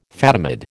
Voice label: "Fatimid", American